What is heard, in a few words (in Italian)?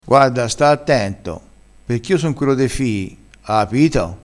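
"quello de fii", vocabolario dialettale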